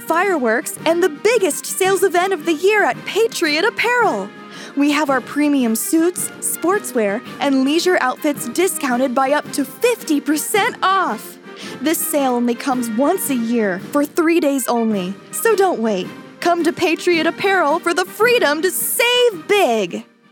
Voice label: commercial

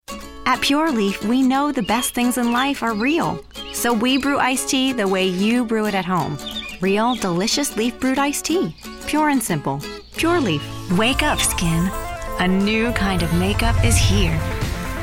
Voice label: commercial vo; demo

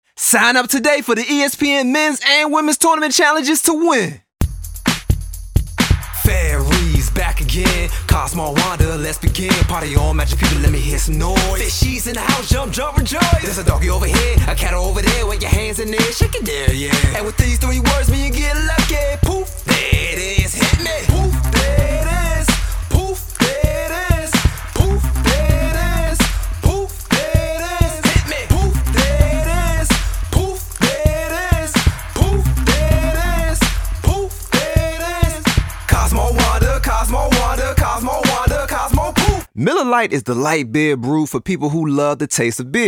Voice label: voiceover; reel

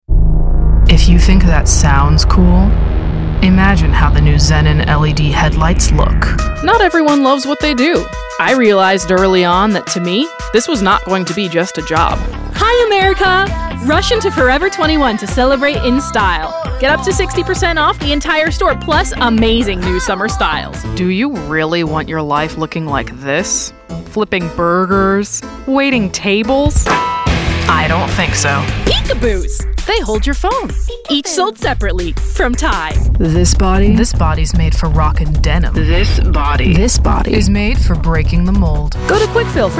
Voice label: commercial

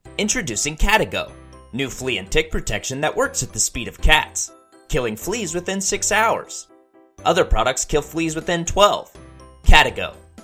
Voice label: voice over; demo reel

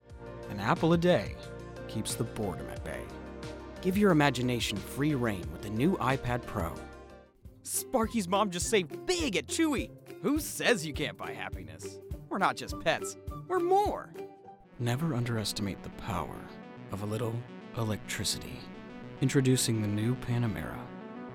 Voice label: commercial; demo

